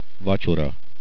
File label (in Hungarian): dinner, hungarian